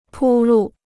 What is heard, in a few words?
"铺路", Chinese